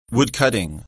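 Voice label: "woodcutting", English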